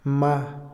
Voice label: ir, Otomí, hombre; Movimiento; INALI

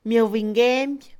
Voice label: acercarse, Mixe, Mujer; Movimiento; INALI

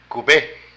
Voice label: Elección, Zapoteco de Petapa; Moderno; Vocabulary

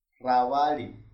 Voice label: "hoja", Huichol; INAH; INALI

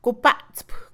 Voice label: sumergirse en el agua, Mixe, Mujer; Movimiento; INALI

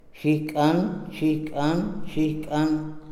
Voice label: rodilla, Tojolabal

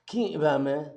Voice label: "beben ellos", Zapoteco Magdalena Ocotlan; Verbos; INALI; Haspelmath

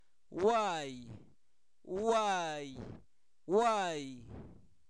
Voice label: caballo, Zapoteco, santo domingo albarradas

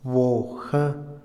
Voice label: dinero, Otomí, hombre; Posesión; INALI

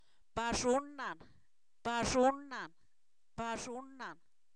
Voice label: corro, Zapoteco, san miguel albarradas

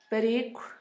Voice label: perico, Zapoteco, Asunción Ocotlan; Animales; INALI; Haspelmath

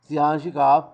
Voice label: "atolondrado", Zapoteco Magdalena Ocotlan; Adjetivos; INALI; Haspelmath

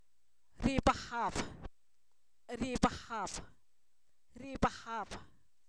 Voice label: comenzón, Zapoteco, san miguel albarradas; enfermedades

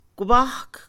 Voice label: "cabeza", Mixe, Mujer; Cuerpo; INALI

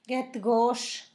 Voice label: tostada, Zapoteco; Alimento; San Pedro Mártir; INALI

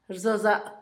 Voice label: "adorno", Zapoteco Asunción Ocotlan; Objetos; INALI

